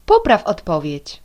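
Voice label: check answer, Chinese; gcompris-data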